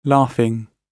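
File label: "laughing", British English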